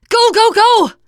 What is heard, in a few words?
emphatic go, teen girl